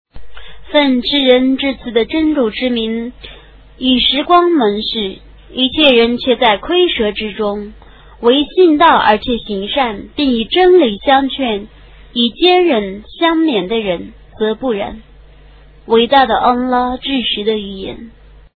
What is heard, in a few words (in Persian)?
ترجمه گویای قرآن کریم به زبان چینی; سوره عصر